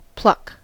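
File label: "pluck", American English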